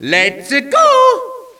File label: lets a go, Echo inside caves, underground; DS; ML BOWSERSINSIDE; Mario